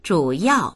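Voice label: "zhu3yao4", Mandarin Chinese; zhǔyào; 主要; 主要な、大切な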